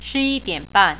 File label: "half past 11", Mandarin